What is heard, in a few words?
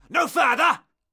Caster Elite Alert Line, dialogue; NPC; Heist Military Spotted